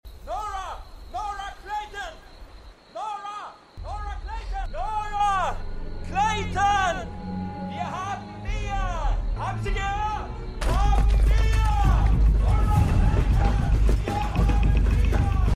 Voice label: Rufender Milizsoldat; Revolution

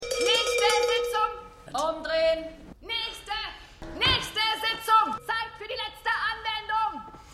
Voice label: Frau, Stimmproben

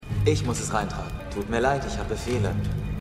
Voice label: Rebellenwache, Stimmproben; Revolution

